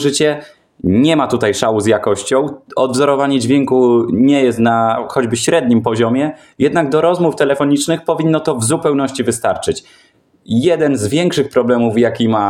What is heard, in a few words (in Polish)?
Infinix Hot 20 5G mikorofon